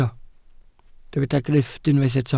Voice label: "grifft", Welsh

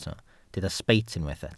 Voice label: "sbeit", Welsh

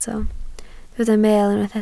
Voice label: "meel", Welsh

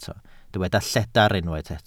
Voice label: "lledr", Welsh

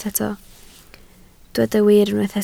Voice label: "wir", Welsh

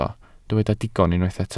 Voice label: "digon", Welsh

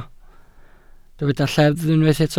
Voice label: "lleddf", Welsh

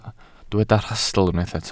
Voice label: "rhastl" Welsh

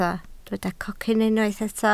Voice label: "cocyn", Welsh

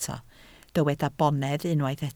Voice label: "bonedd", Welsh

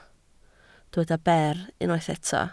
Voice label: "ber", Welsh

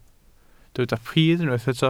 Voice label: "pridd" Welsh

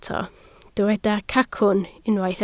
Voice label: "cacwn", Welsh

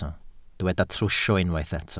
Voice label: "trwsio", Welsh

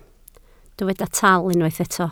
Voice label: "tal", Welsh

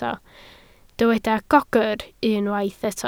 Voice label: "gogr", Welsh

